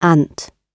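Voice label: "ant", British English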